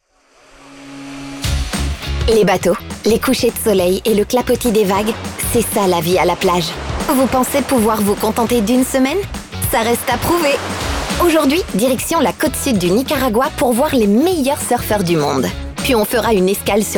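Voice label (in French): Démo PUB jouée, voix décalée + cartoon, Comédienne; "Je suis nez"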